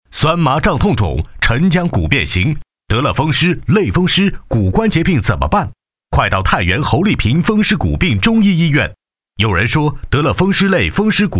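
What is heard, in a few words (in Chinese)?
男国大音-试音; 侯丽萍医院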